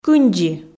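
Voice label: "кындзи", Komi, female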